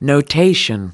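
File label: "notation", American English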